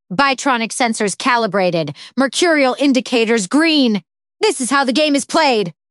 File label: happy, speech; nadia; fertig classic games